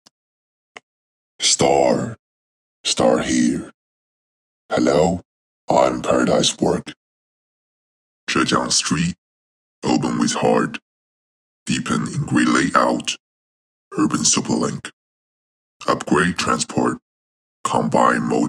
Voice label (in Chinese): 英语